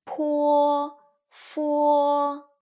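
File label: "pofo", Mandarin pinyin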